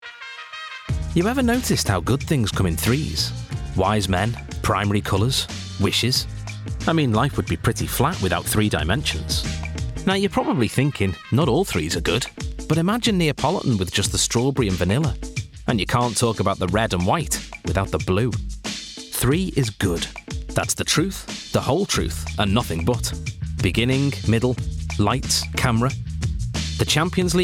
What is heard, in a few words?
male english gentle scouse accent promo; itv; Devine Voices